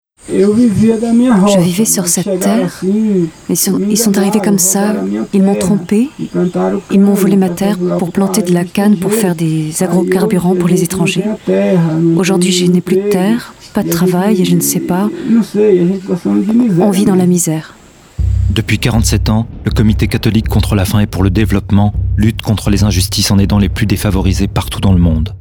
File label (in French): spot radio